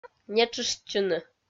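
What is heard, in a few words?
"нетшыштчыны", Komi, female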